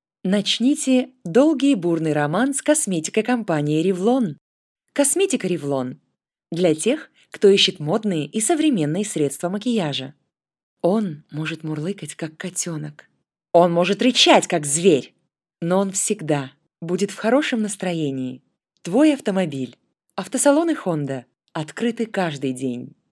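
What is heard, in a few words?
Russian Russia female sales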